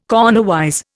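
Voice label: "cornerwise", Indian English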